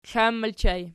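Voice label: "klan" ergative plural, Archi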